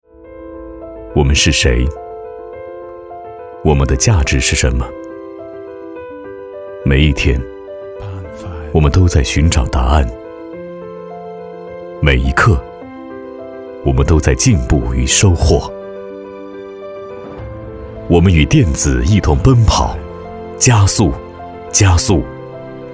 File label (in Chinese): 男 专题 实验室; 张江GJ实验室